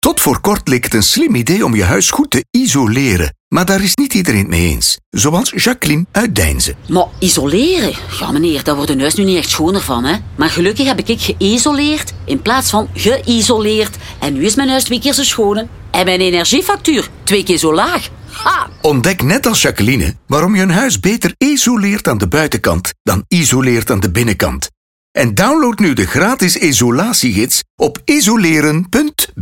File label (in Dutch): vrouw radio spot; Vandersanden Group